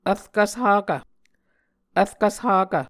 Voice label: "vrkvs hakv", muscogee

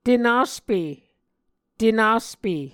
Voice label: "tenaspe", Muscogee

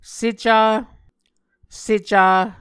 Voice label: "seca", Muscogee

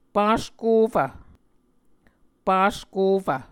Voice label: "paskofv", Muscogee

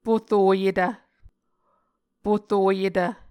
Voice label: "poroyetv", Muscogee

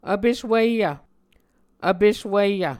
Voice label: "vpes wiyv", muscogee

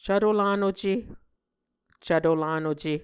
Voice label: "cvto lanuce", Muscogee; Mvskoke Words with Voicing Consonants; cvto-lanuce c / j; A Dictionary of Creek/Muskogee; SIL International

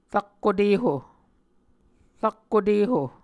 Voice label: "rakko teho", muscogee; Mvskoke Words with Repeated Consonants; A Dictionary of Creek/Muskogee; SIL International; Onvkv Ohhvyayices

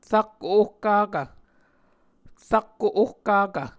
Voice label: "rakko ohkakv", Muscogee

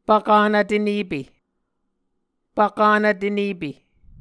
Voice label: "pvkanv tenepe", Muscogee

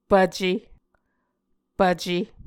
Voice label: "pvce", Muscogee

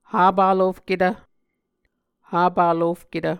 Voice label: "hapalofketv", Muscogee

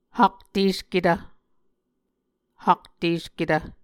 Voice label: "haktesketv", Muscogee

